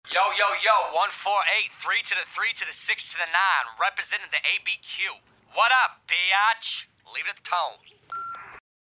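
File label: avtootvetchik, answering machine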